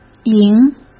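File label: "迎", Mandarin Chinese; chinese flashcards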